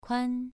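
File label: "kuan", Mandarin Chinese, second tone, pronunciation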